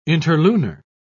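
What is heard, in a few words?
"interlunar", British English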